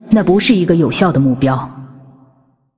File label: Female Ghost ERROR TARGET; zh